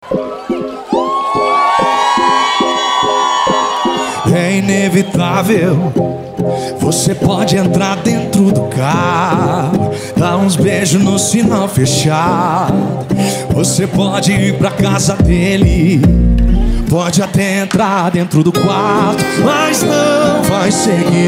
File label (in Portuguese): locutor a voz magnifica